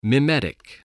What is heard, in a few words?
"mimetic", English